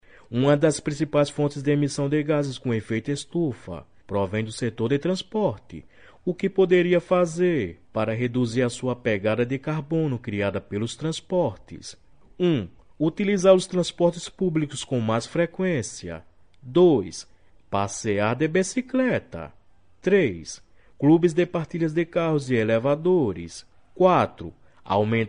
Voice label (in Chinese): 葡萄牙语男 外语; 小语种